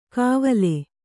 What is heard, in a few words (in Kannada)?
"ಕಾವಲೆ", Kannada